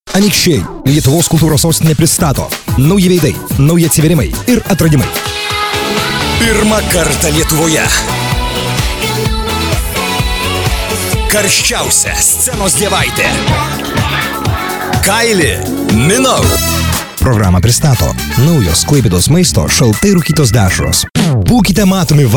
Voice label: Litvanca Erkek, seslendirme

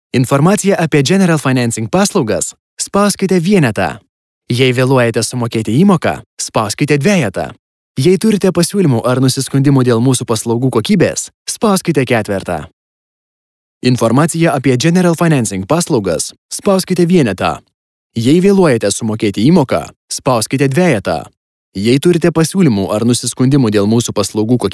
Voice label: Litvanca Erkek, seslendirme; erkek sesler

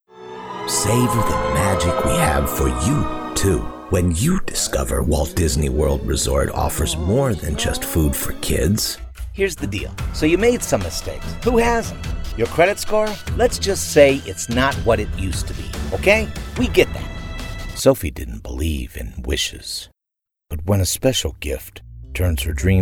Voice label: Amerikan Ingilizcesi Erkek, Erkek Ses

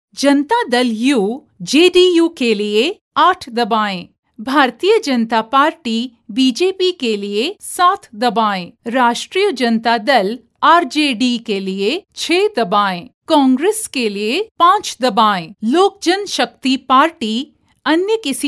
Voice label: Hintce Kadin; seslendirme

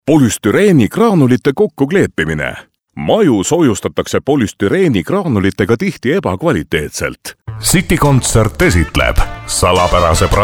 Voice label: Estonca Erkek, seslendirme

